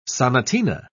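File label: "sonatina", British English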